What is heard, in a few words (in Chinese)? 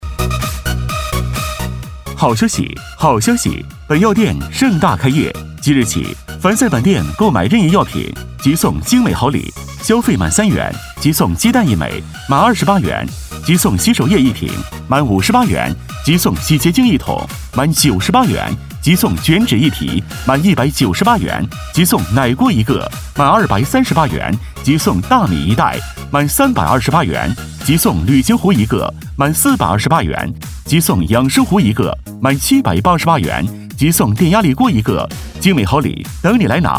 【激情促销】盛大开业